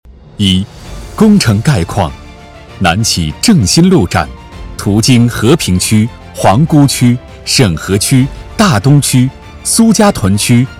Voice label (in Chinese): 男 工程汇报 积极 大气浑厚